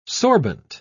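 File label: "sorbent", British English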